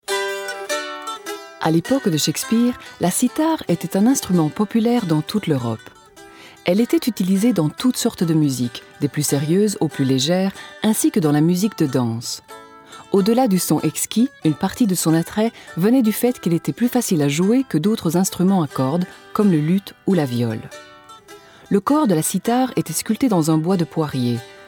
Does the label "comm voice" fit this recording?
No